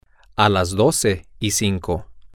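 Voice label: Spanish, male; twelve O Five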